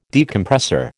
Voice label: "Decompressor", American